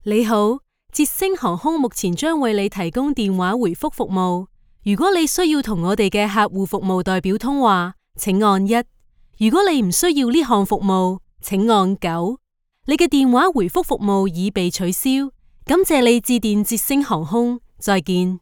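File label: chinese cantonese interactive voice response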